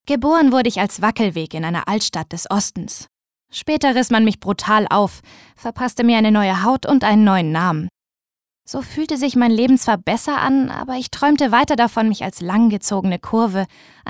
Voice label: Schauspiel, Neutral

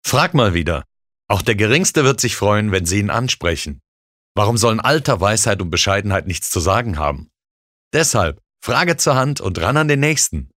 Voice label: Werbung, Freundlich

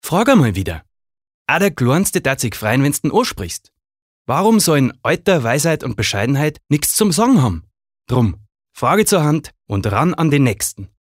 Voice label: Dialekt Bayerisch

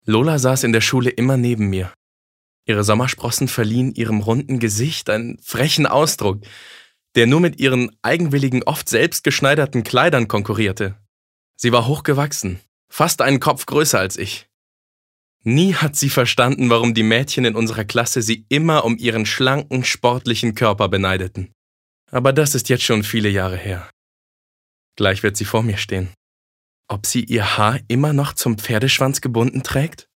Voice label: Neutral; Schultage

